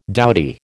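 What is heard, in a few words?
"dowdy", American English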